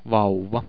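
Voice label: "vauva", Finnish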